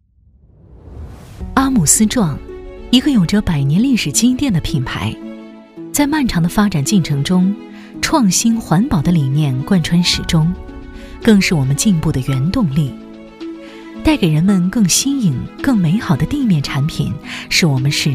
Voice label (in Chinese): 自然 叙述; 小样